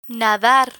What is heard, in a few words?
"nadar", Spanish